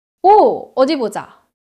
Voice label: neutral, monologue